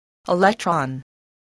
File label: "electron", English